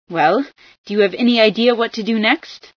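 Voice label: what next, female scientist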